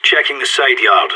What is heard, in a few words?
checking sideyard, radio; SAS